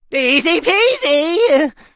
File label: victory, en